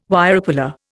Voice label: "Wirepuller", Indian